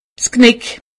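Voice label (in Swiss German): "knick", Haut Rhin; medecine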